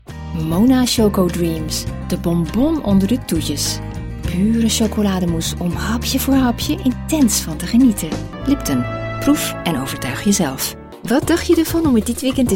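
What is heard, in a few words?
Dutch, commercial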